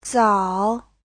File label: "zao3", Chinese; Giáo trình Hán ngữ